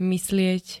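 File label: "myslieť", Slovak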